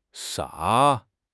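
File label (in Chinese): "啥", Chinese; HSK6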